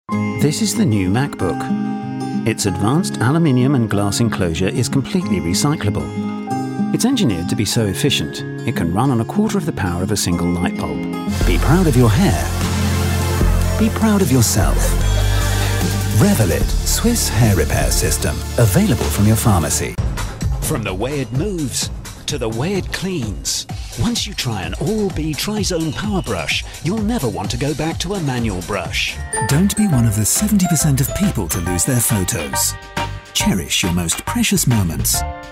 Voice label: Commercials